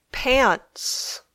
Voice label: "pants", English

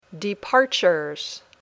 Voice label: "departures", English

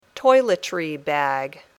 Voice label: "toiletry bag", English

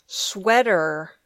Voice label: "sweater", English

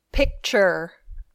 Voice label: "picture", English

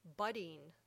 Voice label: "budding", English

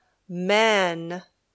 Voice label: "men", English

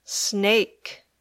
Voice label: "snake", English

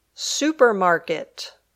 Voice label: "supermarket", English

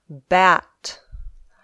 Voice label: "bat", English

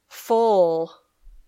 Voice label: "full", English

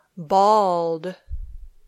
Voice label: "bald", English

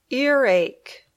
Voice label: "earache", English

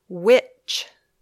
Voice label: "which", English

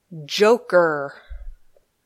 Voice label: "joker", English

